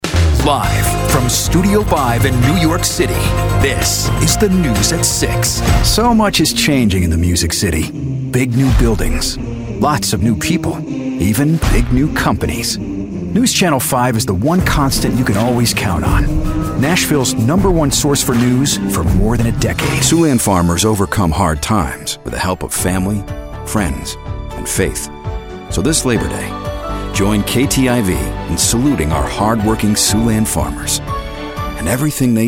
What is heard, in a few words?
tv affiliate men